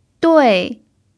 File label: "dui4", chinese